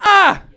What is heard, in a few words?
pain, hostage; Counter-Strike Global Offensive